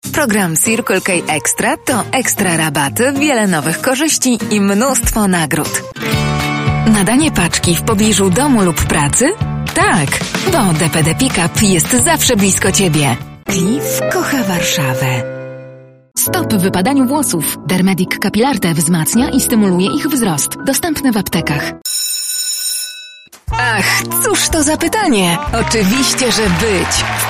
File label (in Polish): Lektor reklama polish voice over